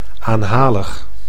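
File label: "aanhalig", Dutch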